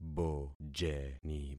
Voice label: "bojeni", concat syllables; Affixing experiment

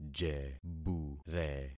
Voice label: "jebure", concat syllables; Affixing experiment

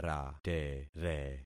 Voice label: "ratere", concat syllables; Affixing experiment

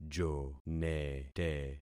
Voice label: "jonete", concat syllables; Affixing experiment

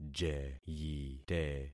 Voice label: "jeyite", concat syllables; Affixing experiment

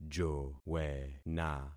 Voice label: "jowena", concat syllables; Affixing experiment

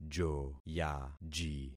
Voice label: "joyaji", concat syllables; Affixing experiment

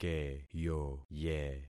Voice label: "keyoye", concat syllables; Affixing experiment

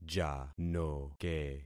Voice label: "janoke", concat syllables; Affixing experiment

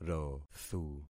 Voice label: "rothu", concat syllables; Affixing experiment

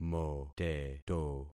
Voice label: "moteto", concat syllables; Affixing experiment

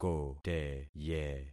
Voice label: "koteye", concat syllables; Affixing experiment

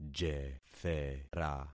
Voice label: "jethera", concat syllables; Affixing experiment

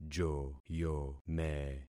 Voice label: "joyome", concat syllables; Affixing experiment

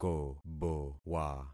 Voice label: "kobowa", concat syllables; Affixing experiment

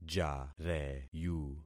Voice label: "jareyu", concat syllables; Affixing experiment

